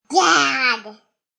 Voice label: Kid Says Dad; Kid talking